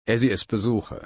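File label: "besuchen", German, slow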